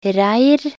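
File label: "reir", Norwegian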